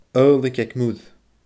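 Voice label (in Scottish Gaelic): "adhlaic aig muir", Scottish Gaelic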